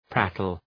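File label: "prattle", English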